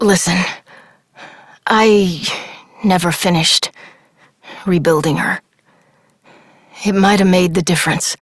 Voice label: Adult Op, en; Warframe; New War